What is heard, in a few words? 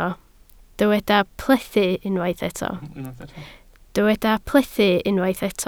"plethu", Welsh